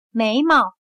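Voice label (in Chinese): "眉毛", Chinese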